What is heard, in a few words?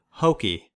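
"hokey", US English; pronunciations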